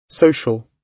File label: "social", gb